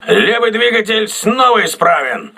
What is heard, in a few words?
voice message left engine repaired, crew ships russian